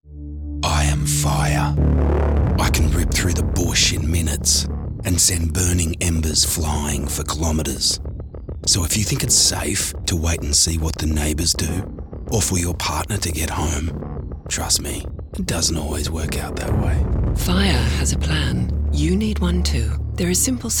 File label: PAS Radio Family